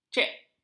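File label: "cek", Malay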